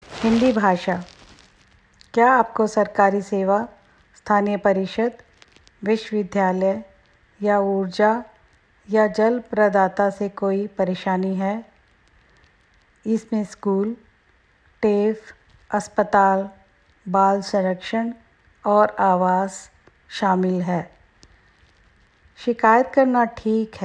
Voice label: Hindi